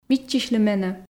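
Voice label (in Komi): "виччысьлы менӧ", Komi, female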